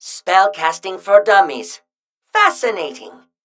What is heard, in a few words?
Male Mechagnome Play